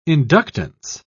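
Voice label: "inductance", British English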